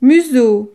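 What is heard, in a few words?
"museau", French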